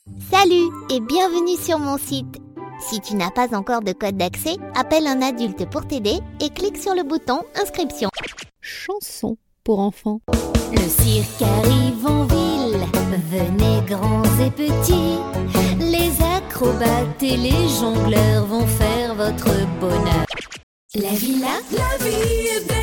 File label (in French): french f character; voice demos